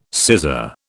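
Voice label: "scissor", British English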